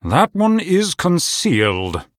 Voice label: Male Human ERROR STEALTH

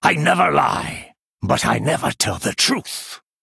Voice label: Male Draenei Play

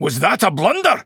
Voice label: Male Dwarf Oops

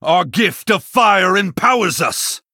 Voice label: Male Blood Elf

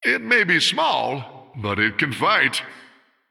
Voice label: Male Human

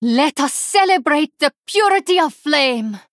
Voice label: Female Draenei Fire Festival

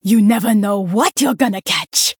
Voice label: Female Troll Play

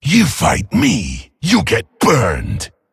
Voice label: Male; Flamewaker

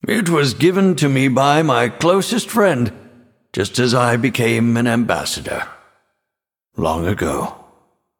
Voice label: Male Nightborne Victory; Mission8Victory

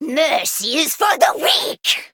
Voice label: Female Harpy Attack

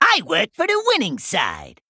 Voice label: Male Goblin Play

